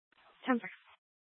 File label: Scottsdale Police, Simulcast; Simulcast H- Scottsdale